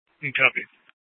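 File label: police dispatch, scanner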